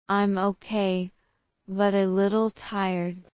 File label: low; assessment